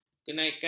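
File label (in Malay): "kenaikan", Malay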